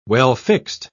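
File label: "well-fixed", British English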